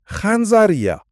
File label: fire, georgian